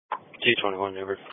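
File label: Police Patrol (Dispatch)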